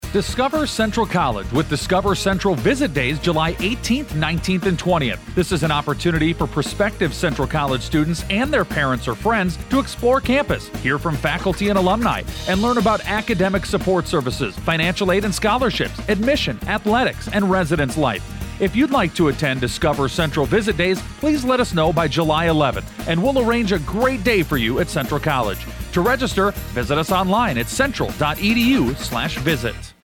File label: radio Ad; Discover Central